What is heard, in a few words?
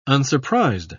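"unsurprised", British English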